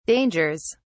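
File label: "dangers", British English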